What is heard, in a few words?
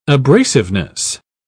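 "abrasiveness", English, British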